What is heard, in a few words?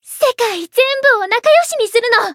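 Voice variable chant, Japanese; tsukiha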